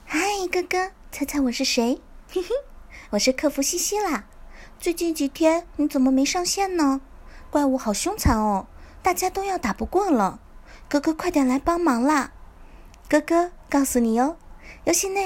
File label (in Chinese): 萝莉女音